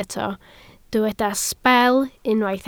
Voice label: "sbel", Welsh

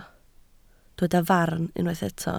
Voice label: "farn", Welsh

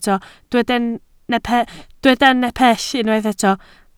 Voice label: "nepell", Welsh

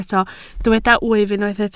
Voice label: "wyf", Welsh

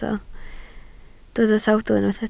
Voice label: "sawdl", Welsh

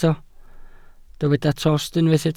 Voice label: "tost", Welsh

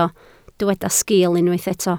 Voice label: "sgil", Welsh

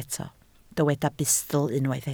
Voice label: "bustl", Welsh

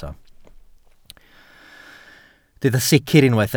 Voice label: "sicr", Welsh

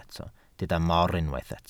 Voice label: "mor", Welsh

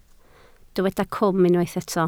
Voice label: "cwm", Welsh

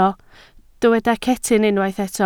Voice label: "cetyn", Welsh

